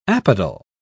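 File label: "apodal", British English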